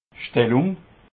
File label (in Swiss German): "stellung", alsace